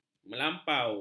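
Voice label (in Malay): "melampau", Malay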